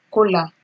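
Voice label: "kola", Nigerian Pidgin, female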